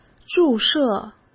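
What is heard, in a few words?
"注射", Mandarin Chinese; hsk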